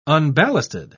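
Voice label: "unballasted", British English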